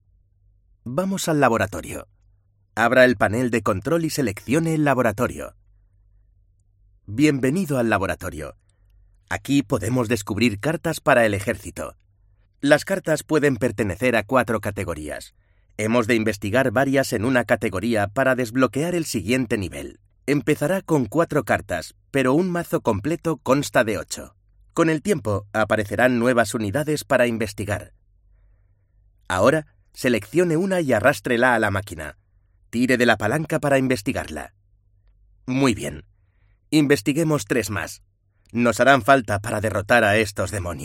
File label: MALE, audiobooks